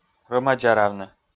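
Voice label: "рӧма-дзаравны", Komi, male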